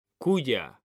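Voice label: "CUYA", Spanish, male; mekanta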